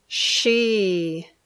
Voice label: "she", English